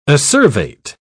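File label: "acervate", British English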